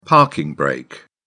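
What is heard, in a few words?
"parking brake", British English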